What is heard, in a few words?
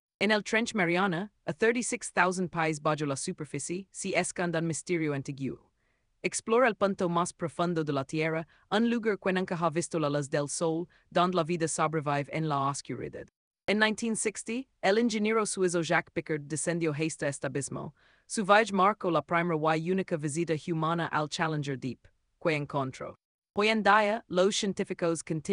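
voiceover, Spanish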